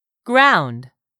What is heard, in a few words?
"GROUND", english; 3000 words